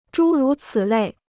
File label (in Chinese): "诸如此类", Chinese